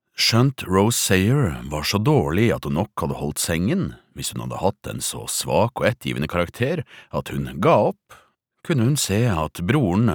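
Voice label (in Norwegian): lydbok, Norwegian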